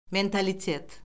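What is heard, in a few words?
"mentalitet", Azerbaijani; Orfoqrafiya Lüğəti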